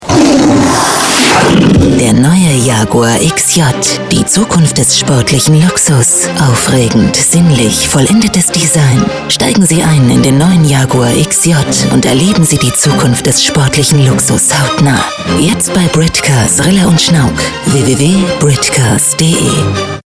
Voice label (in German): Automotive Radiowerbung